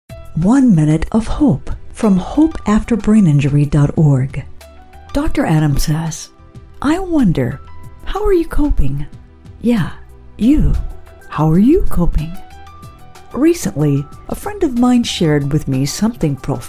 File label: Web Program Narration